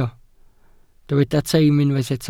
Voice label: "teim" Welsh

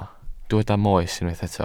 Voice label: "moes" Welsh

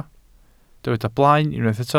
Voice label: "blaen", Welsh

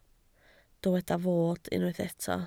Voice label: "fod", Welsh

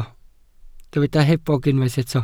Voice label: "hebog", Welsh

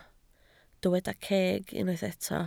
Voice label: "ceg", Welsh

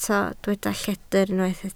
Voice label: "lledr", Welsh

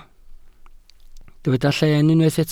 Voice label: "lleen" Welsh

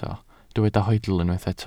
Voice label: "hoedl", Welsh

